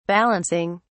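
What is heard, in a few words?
"balancing", British English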